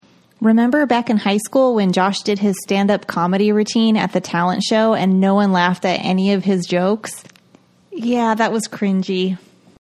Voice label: cringy